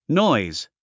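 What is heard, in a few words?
"noise", English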